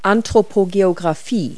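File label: "human geography", English words; Multi-Lingual Talking Picture Dictionary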